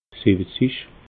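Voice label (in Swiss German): "siwwezig", Alsatian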